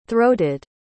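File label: "throated", spoken word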